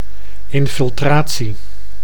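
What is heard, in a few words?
"infiltratie", Dutch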